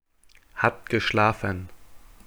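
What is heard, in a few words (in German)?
"hat geschlafen", German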